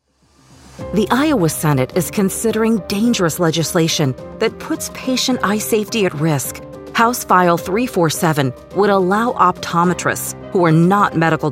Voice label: radio spot